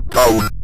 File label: direction down, Synth Voice; Kenney's Sound Pack; Synth Voice 1